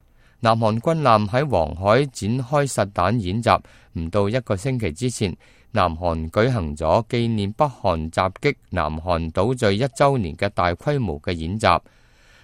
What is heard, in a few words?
cantonese, news